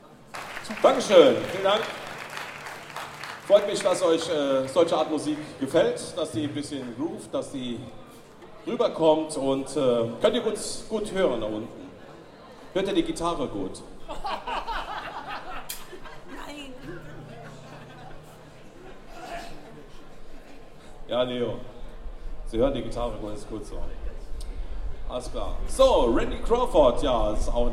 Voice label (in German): Ansage, Konzert; Boemundhof, Saarburg